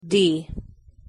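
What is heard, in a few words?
"d" pronunciation English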